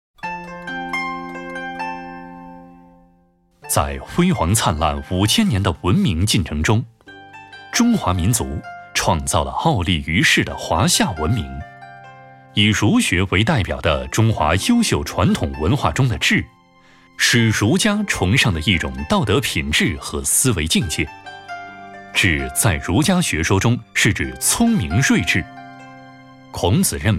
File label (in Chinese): 男 诗歌 古文介绍